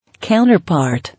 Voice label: "Counterpart", English